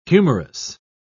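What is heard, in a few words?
"humourous", English, British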